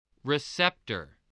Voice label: "receptor", english